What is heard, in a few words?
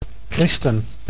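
"christen", Dutch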